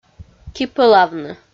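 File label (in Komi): "кипӧлавны", Komi, female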